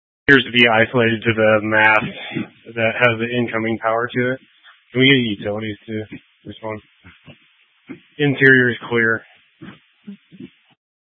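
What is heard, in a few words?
Incident Tactical, scanner audio recording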